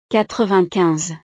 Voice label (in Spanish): "quatre-vingt-quinze", frances